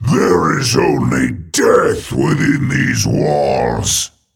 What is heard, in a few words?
Male Demon